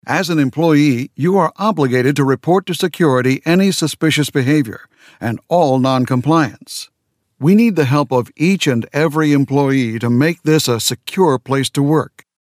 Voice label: us elearning